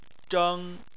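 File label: "zheng" Chinese, first tone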